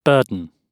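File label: "burden", British English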